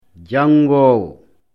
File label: "janngoowo", lecture fl